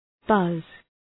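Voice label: "buzz", English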